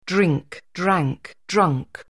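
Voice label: "drink", English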